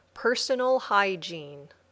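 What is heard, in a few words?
"personal hygiene", English words; Passport to English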